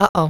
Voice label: Uh oh

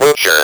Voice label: "BUTCHER", Speak & Spell

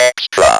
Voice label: "extra", Speak & Spell

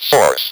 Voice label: "SOURCE", Speak & Spell

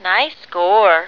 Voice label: nice score, Battle Girl

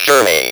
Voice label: "journey", Speak & Spell

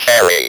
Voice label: "CARRY", Speak & Spell

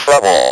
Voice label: "TROUBLE", Speak & Spell

